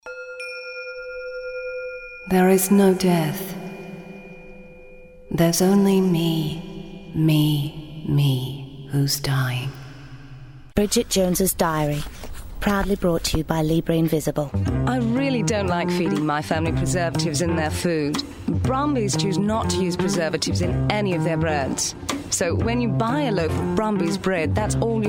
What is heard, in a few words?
Character